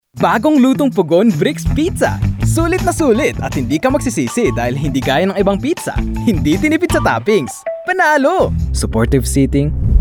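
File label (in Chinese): 菲律宾语男_外语_小语种_活力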